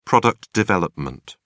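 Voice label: "product development", English, British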